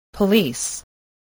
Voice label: "police", English